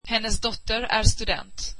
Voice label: swedish her daughter is a student

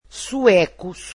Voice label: "Swedish", Portuguese

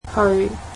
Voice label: "to", Cantonese

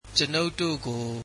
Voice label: us, burmese

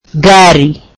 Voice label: swahili car

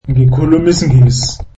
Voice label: zulu I speak English

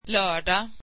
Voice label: swedish Saturday

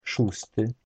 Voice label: polish sixth, polish

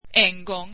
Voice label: swedish once

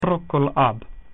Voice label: "far from", Latin

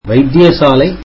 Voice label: tamil hospital

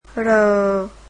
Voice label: "r", vietnamese alphabet

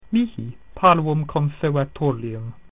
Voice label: "I have a small green house", Latin

